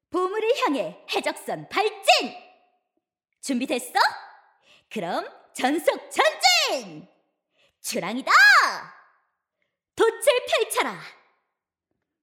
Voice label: twenties eager energetic